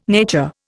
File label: "nature", Indian